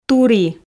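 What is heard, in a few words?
"тури", Komi, female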